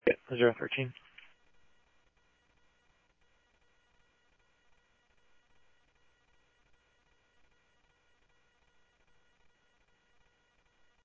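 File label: Mesa Simulcast Police Department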